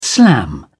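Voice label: "slam", British English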